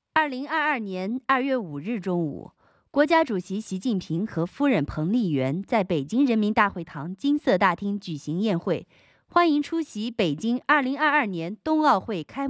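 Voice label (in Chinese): 党政专题女声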